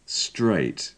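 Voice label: "straight", British English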